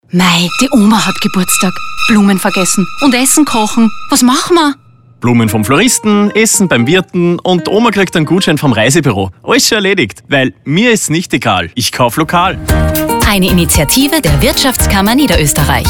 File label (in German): radiospot; ich kauf lokal 2022